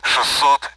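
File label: Speech Sound Russian Common AWACS Numbers; DCS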